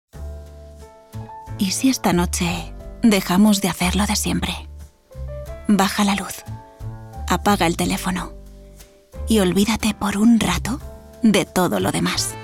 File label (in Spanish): generica sensual